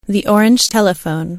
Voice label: orange telephone man